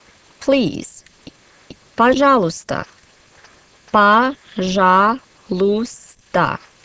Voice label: Russian Please